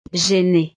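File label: "genee", French; estados animo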